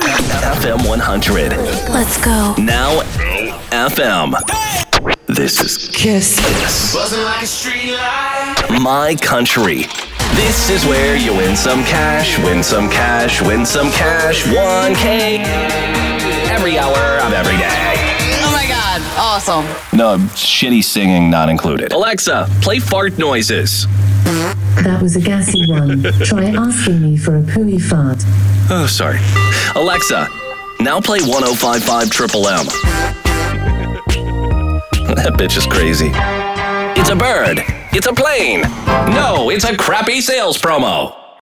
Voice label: radio imaging